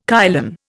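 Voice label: "kylin", Indian English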